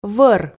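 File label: "вӧр", Komi, female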